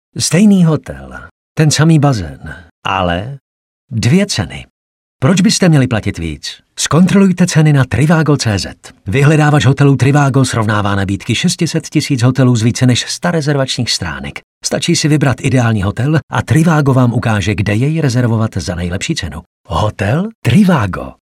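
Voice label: Male Czech commercial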